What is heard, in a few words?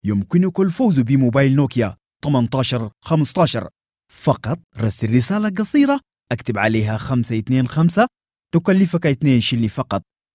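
Sudanese Arabic, male, voice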